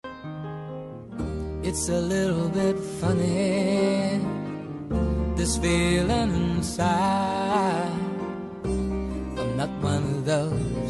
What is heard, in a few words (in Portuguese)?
dia dos namorados reconciliação, voz feminina